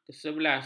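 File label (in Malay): "kesebelas", Malay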